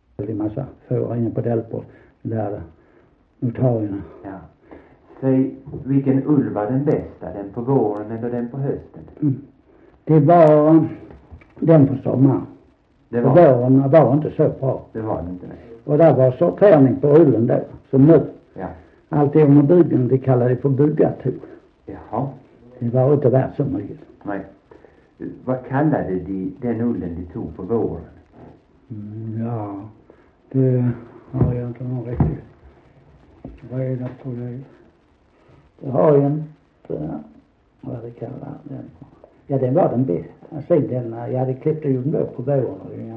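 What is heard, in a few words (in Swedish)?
Sankt Olof Skåne, dialekter; Folkminnen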